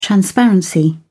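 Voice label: "transparency", British English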